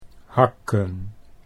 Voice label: "hakken", Dutch

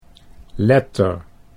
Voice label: "letter", Dutch

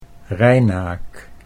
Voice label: "rijnaak", Dutch

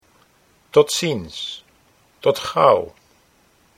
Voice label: tot ziens tot gauw, Dutch

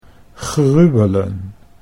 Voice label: "gruwelen", Dutch

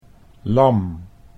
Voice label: "lam", Dutch

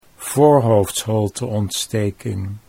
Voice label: "voorhoofdsholteontsteking", Dutch

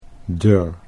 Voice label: "dur", Dutch

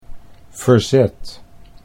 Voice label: "verzet", Dutch

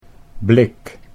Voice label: "blik", Dutch

